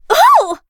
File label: hit en; Cookie Run